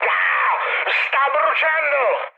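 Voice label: Italian on fire mood high, speech; War Thunder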